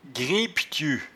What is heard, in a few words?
"grimpetiu", patois jura